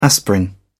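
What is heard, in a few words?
"aspirin", English, British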